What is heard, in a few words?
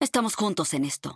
vo, es-mx; quinn